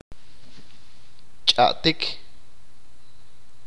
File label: speak language, achi